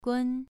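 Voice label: "gun", Mandarin Chinese, second tone, pronunciation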